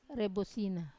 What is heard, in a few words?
"rebuosina" forehead, Wamesa